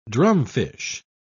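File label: "drumfish", British English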